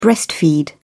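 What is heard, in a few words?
"breastfeed", British English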